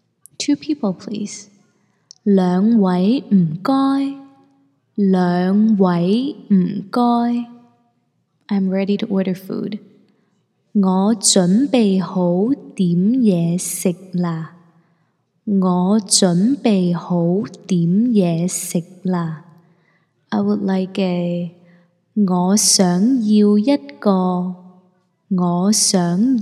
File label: cantonese ordering in restaurant